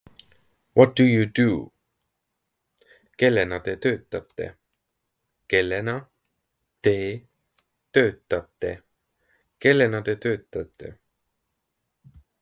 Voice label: What do you do, Estonian phrasebook; the talking estonian phrasebook